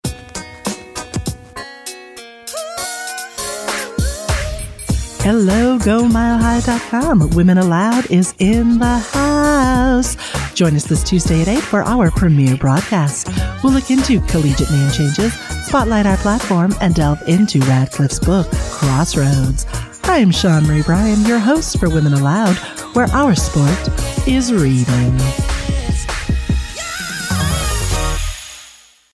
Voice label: Promo; Women ALoud